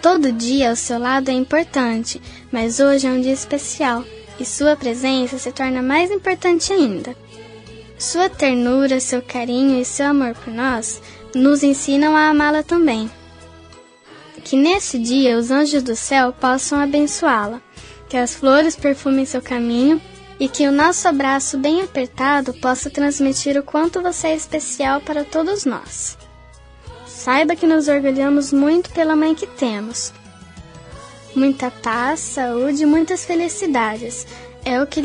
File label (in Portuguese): voz infantil mae fem, Aniversário Voz Infantil Mãe – Voz Feminina